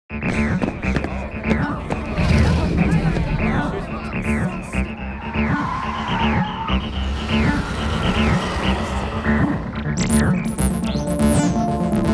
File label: Commercial; Nederlands Film Festival